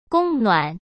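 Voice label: "供暖", Mandarin Chinese; chinese-flashcards; hsk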